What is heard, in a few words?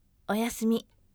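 "oyasumi", firm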